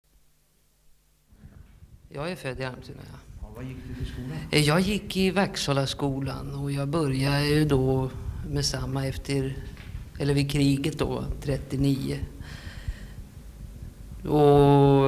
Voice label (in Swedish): Uppsala, dialekter; Svenska sagor; Folkminnen